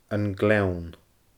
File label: "An Gleann", Scottish Gaelic; ainm-àite fir.; Glen (W Isls); An Gleann (Ag&B); Glen Alpine (NS; Glen Road (NS; Pleasant Valley